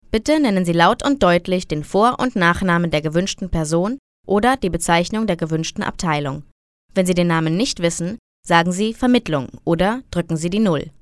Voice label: German; קריינות

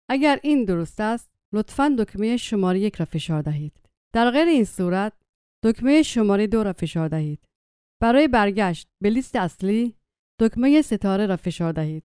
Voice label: Persian; קריינות

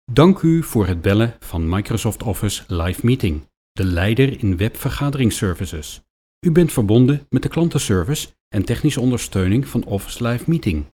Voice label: Dutch; קריינות

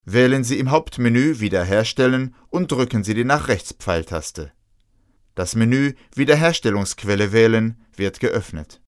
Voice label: German; קריינות

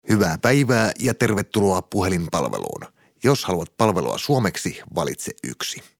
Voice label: Finnish; קריינות

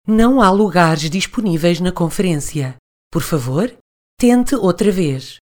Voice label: Portuguese Portugal; קריינות

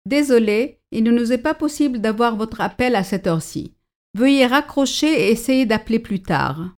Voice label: French; קריינות

